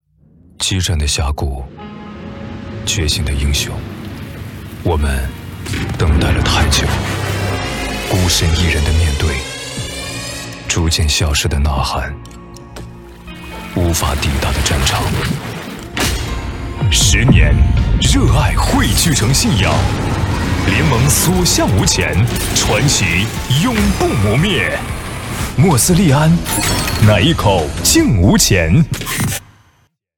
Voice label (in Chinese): 高端 男 大气 冷酷; 英雄联盟·莫斯利安